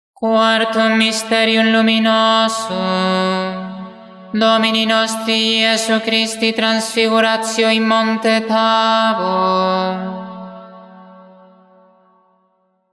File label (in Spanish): Anuncio del cuarto misterio luminoso; santo rosario